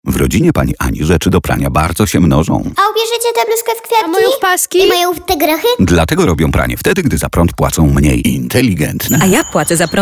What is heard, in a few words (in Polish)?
reklama radiowa wersja dluga; inteligentne sieci energetyczne